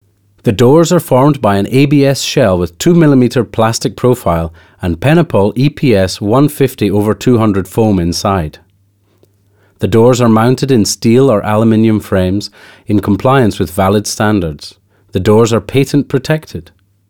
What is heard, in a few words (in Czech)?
ukázka angličtina